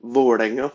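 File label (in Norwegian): "Vålerenga" Norwegian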